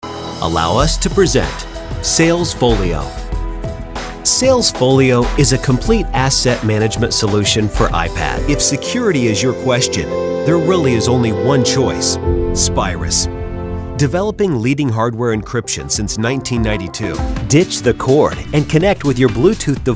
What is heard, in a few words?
Corporate Narration